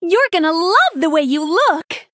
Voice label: Female Human Play